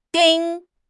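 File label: "盯", Mandarin Chinese; HSK6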